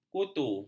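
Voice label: "kutu", Malay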